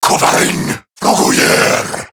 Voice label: Cover Me Corrupted Grineer Male

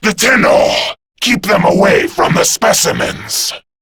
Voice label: Grineer Male en; InfestedIntroQuest; Intel Alerted; Warframe

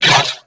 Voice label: Pain, Grineer Male; New War; Narmer

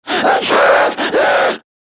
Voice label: Attack Grineer Manic; Battle Barks

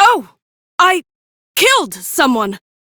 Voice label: Crew Enemy Defeat, female; Warframe; Railjack; Cephalon Suda

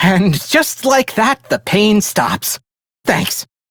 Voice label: Crew Heal, Male; Warframe; Railjack; Perrin Sequence

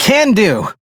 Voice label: Crew Accept, Male; Dialog; Warframe; Railjack Crew; Perrin Sequence

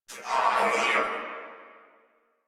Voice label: Struggle Crewmen, Battle; Warframe; Corpus Crewmen; Crewman C

